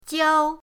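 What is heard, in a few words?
"jiao", Mandarin pinyin, first tone; pinyindct-open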